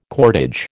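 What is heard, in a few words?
"cordage", American English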